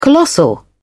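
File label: "colossal", British English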